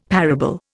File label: "parable", Indian; Dictionary